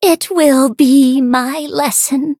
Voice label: defeat, en; Cookie Run